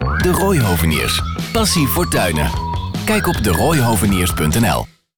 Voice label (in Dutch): Radiocommercial; De Rooy Hoveniers